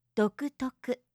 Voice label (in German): "dokutoku", japanische maedchennamen